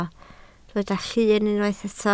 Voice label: "llun", Welsh